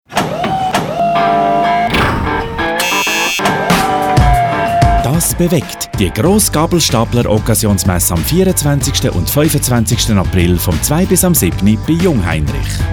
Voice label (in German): radiospot; jungheinrich